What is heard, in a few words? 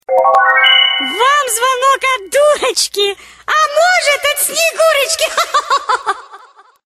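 Call from a silly snow maiden hee-hee-hee-hee!; Zvonok ot durochki - snegurochki khi-khi-khi-khi!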